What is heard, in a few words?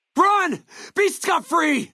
male adult dialogue greeting